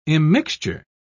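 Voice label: "immixture", British English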